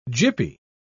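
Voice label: "gippy", English, British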